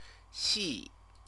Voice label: "xi", Chinese